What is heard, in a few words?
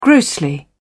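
"grossly", British English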